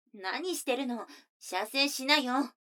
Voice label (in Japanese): 女の子台詞, ASMR